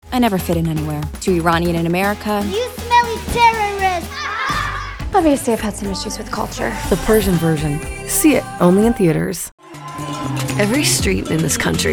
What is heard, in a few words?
Promo